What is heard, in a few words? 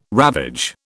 "ravage", British